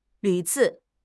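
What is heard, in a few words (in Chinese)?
"屡次", Chinese; HSK6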